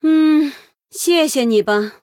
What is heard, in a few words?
Female Human Thanks; 感谢; zh; 对决模式; 渗透者莉莉安